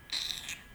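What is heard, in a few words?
"mtschew", Nigerian Pidgin, male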